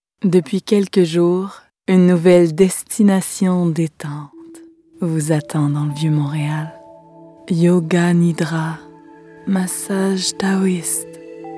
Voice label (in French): Voix calme Spa; Parc aquatique